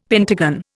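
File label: "pentagon", Indian English; Dictionary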